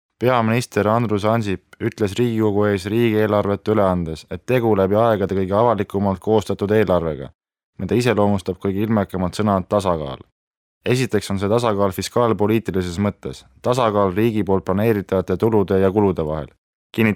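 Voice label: estonian male narration; voice demos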